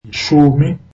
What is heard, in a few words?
zulu ten